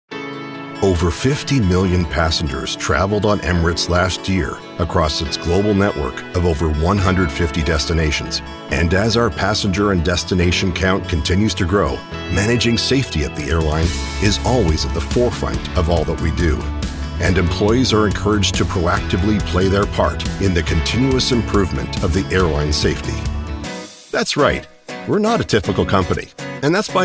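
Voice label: American English, Corporate; voice demos; GoLocalise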